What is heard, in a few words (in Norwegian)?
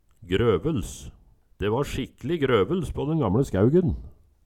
grøvels, Numedalsmål